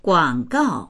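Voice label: "guang3gao4", Mandarin Chinese; 广告; 広告、宣伝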